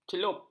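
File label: "celup", Malay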